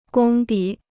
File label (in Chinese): "功底", Chinese